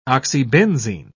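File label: "oxybenzene", British English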